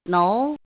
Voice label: "nou2", Chinese

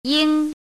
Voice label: "ying1", Chinese; chinese-voice

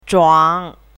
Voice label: "zhuang3", Chinese; chinese-voice

